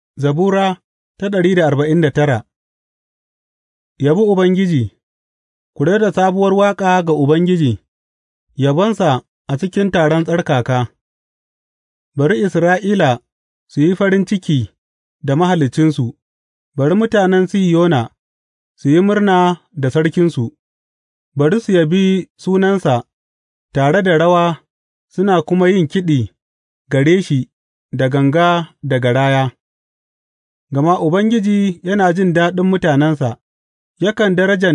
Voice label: hausa bible drama, psalms; hausa bsn nt drama